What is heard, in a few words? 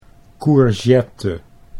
"courgette", Dutch